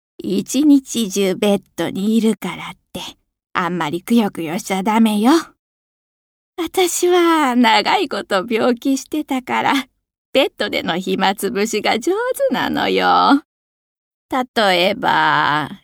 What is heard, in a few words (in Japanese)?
老人